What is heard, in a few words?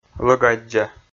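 "лӧгаддза", Komi, male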